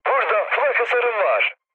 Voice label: damaged aa, mood low, speech tur; War Thunder